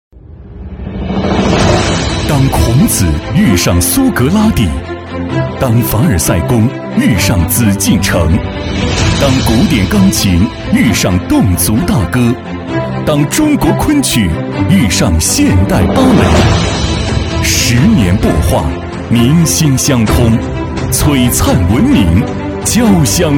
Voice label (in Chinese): 男 片头配音 大气恢弘; 激情有力 大气浑厚